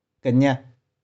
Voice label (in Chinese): "keh4nih4", 玉環